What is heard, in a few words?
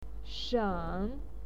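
"shen", Mandarin Chinese, third tone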